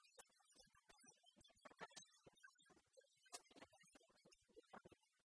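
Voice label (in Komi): рытнас институтысь ме пета 17 часын да муна студентъяслӧн ӧтув оланінӧ, Komi, female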